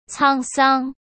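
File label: "沧桑", Mandarin Chinese; chinese-flashcards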